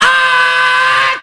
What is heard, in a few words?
"ay", voice